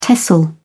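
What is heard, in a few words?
"tesl", English, British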